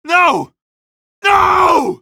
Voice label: cub death, male; coast; odessa